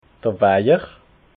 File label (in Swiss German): "wejer", alsace